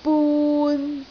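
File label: "bun3", Cantonese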